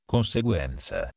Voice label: "conseguenza", Italian

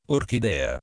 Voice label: "orchidea", Italian

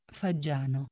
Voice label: "fagiano", Italian

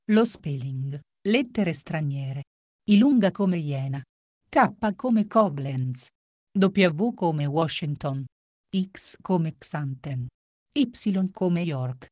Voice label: spelling lettere straniere, Italian

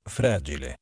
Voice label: "fragile", Italian

